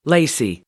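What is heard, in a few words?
"lacy", English; adjective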